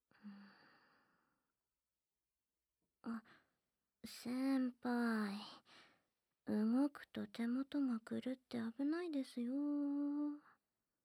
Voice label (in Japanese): アンニュイ 耳かき と 耳舐め 手コキ ＆ 無気力 エッチ ピロートーク; SEcut